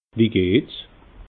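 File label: "wie gehts", Alsatian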